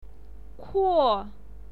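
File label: "kuo", Mandarin Chinese, fourth tone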